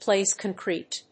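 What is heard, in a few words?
"place concrete", English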